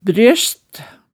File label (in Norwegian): "brjøst", hemsedalsmaalet tale